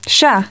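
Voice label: "yes", female; TALKNOW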